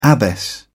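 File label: "abbess", British English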